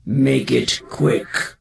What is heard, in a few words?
NPC Death Knight Female Low Greeting